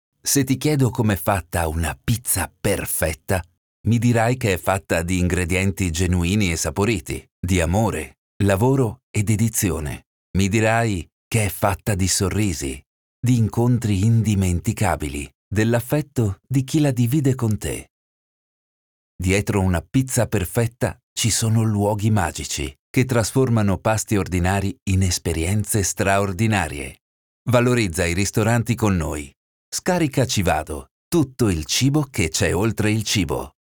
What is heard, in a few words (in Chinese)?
意大利语男,深沉稳重